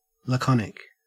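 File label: "laconic", Australian English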